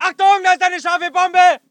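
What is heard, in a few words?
voice german infantry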